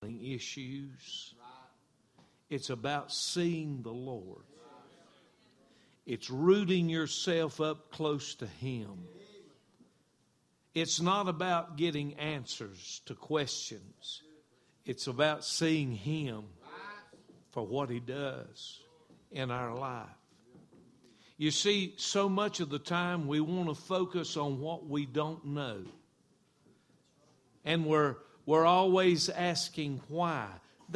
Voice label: preacher